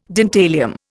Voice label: "dentalium", Indian